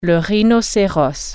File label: "rhinoceros", French